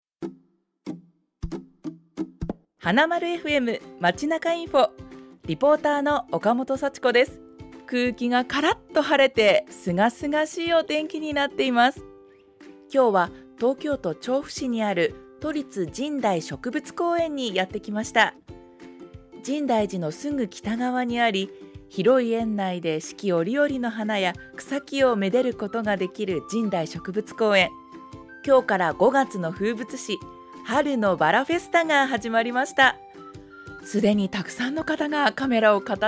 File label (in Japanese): ラジオリポート